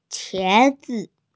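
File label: "qie2zi5", Mandarin Chinese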